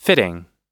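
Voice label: "fitting", US English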